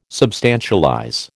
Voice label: "substantialize", American

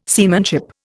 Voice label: "seamanship", Indian

